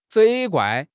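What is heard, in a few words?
"责怪", Mandarin Chinese; HSK6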